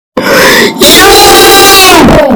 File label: yoo loud